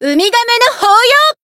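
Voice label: heal, Japanese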